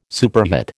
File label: "superhet", American